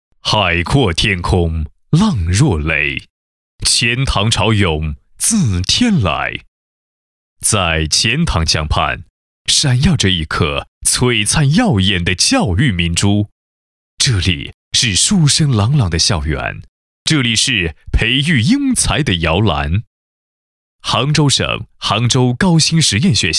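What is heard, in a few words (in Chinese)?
男国大音-样音; 高新学校最终稿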